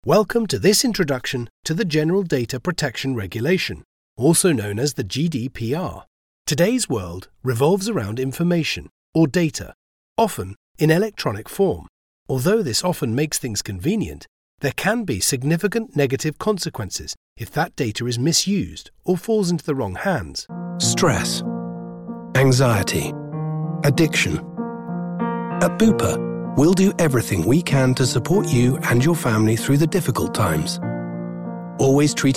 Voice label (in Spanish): Ingles UK Voz masculina juvenil